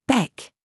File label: DRESS TRAP, minimal pairs